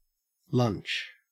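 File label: "lunch", Australian English; pronunciations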